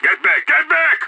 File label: bomb fallback, radio; SAS